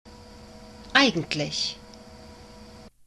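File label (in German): "eigentlich", German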